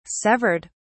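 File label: "severed", British English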